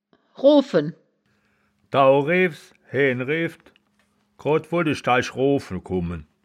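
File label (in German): "roafen", wittlicher woerterbuch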